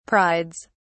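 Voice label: "prides", British English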